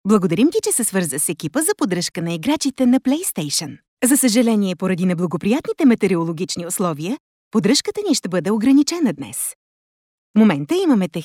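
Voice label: Bulgarian, female, Telephone Prompts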